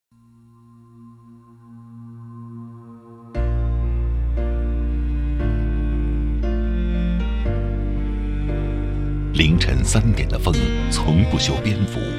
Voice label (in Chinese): 专题 学校 讲述, 男国; 青岛大学